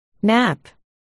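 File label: "nap", spoken word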